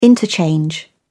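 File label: "interchange", English, British English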